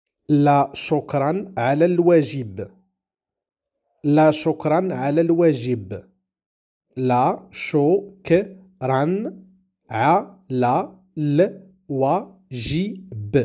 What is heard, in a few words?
how to pronounce you are welcome in darija moroccan arabic